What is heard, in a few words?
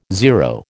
"zero", American